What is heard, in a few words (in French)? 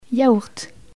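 "yaourt" French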